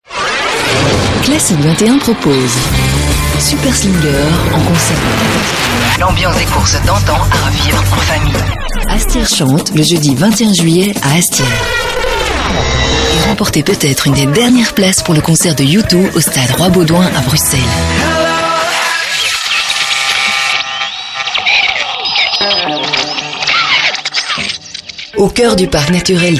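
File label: French, female, commercial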